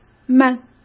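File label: "们", Mandarin Chinese; chinese-flashcards; hsk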